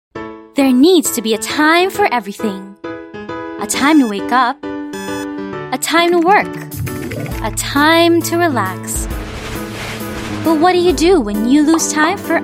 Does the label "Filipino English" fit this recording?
Yes